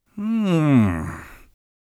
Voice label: sceptical, Mage Voice; Mage Voice Pack